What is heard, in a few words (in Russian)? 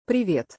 privet golos jenshiny